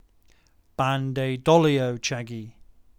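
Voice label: Jingle HEY BABE JINGLE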